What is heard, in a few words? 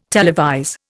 "televise", Indian